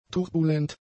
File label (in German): "turbulent", German; adjectives